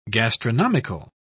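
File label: "gastronomical", British English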